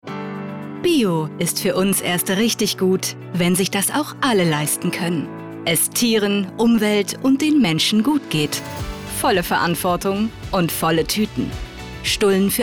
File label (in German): Werbung Supermarkt; voice